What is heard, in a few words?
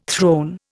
"throne", Indian